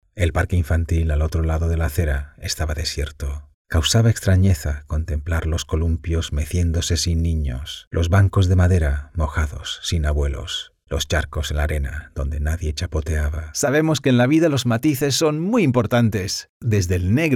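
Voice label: European Spanish General; GoLocalise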